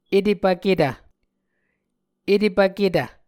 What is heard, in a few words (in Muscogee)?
"etepvketv", Muscogee